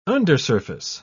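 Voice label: "undersurface", English, British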